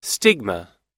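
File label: "China", English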